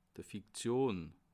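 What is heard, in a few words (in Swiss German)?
"uswahl", Bas Rhin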